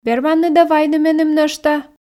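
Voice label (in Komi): верманныд-ӧ вайны меным нӧшта, Komi, female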